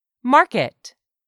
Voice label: "MARKET", english; 3000 words